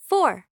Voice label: English Number